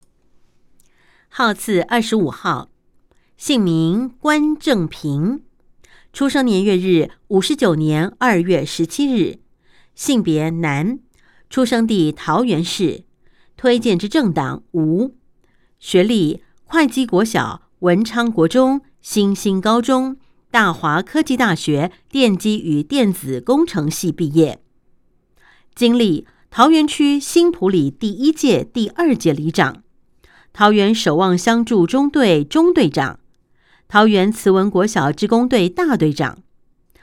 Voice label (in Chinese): 國語; 有聲公報; 市議員